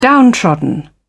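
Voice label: "downtrodden", British English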